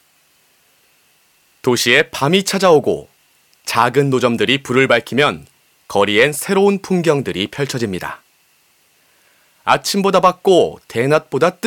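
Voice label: documentary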